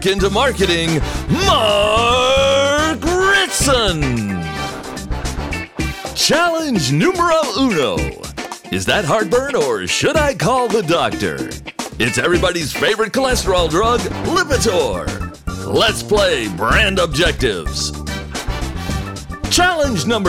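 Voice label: Game Show Announcer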